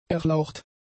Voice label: "erlaucht", German; adjectives